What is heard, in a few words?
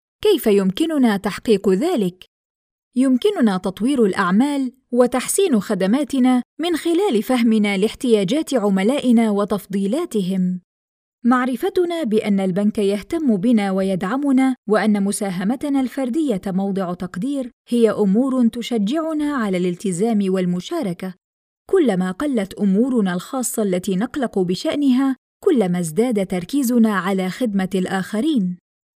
20-50 FEMALE ARABIC smooth, recited, commercial